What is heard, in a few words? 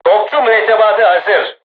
voice message art charged, turkish